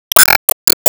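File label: Voice Emotion Pain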